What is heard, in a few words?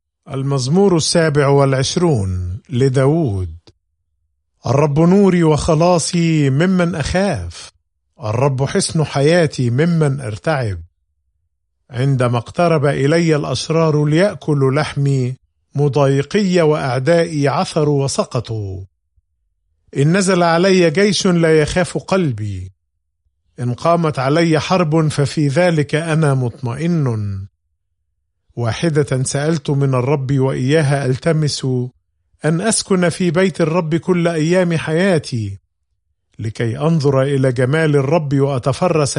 Psalm, arabic bible; psalms